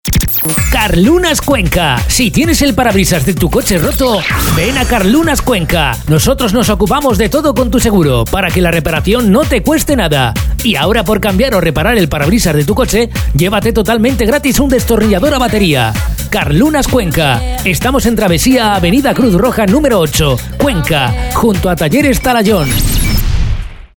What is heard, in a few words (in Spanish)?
HOMBRE